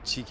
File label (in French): chick prononciation